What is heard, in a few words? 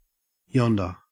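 "yonder", Australian English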